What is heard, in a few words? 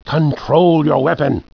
scared; svencoop; decay